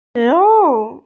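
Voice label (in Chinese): "shi2 wu4" Mandarin Chinese, female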